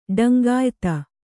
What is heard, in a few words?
"ಡಂಗಾಯಿತ", kannada